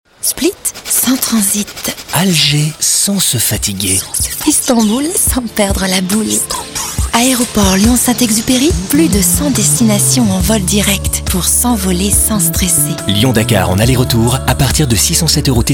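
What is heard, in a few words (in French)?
souriante, voix off féminine; St Exupery Aeroport; Arte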